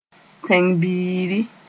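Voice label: "tẽng-n-biiri", Mooré; public-spiritedness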